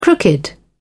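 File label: "crooked", British English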